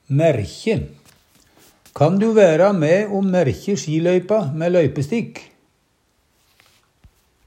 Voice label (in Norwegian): maerkji, Numedalsmål; Verb